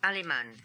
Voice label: "Aliman", pronounciations; Fourth Way; Beelzebub